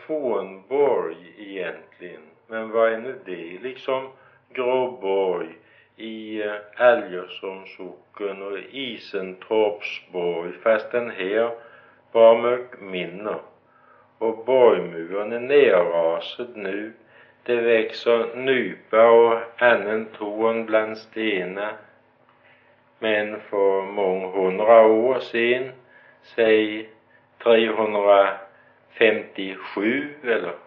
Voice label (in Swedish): Gårdby ÖL, dialekter; Folkminnen; Svenska sagor